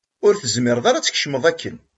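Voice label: "Mazal d ceṭṭḥemt?", Kabyle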